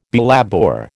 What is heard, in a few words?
"belabour", American